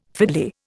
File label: "fiddly", Indian